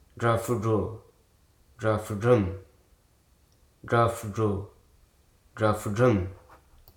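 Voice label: "kinship", English, Hruso dictionary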